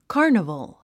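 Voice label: "carnival", English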